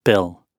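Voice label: "bill", British English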